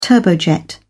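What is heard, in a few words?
"turbojet", British English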